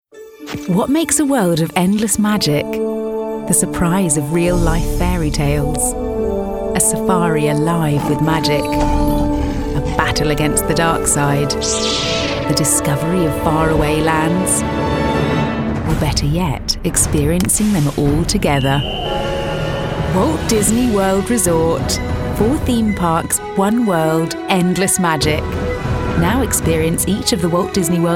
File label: Commercial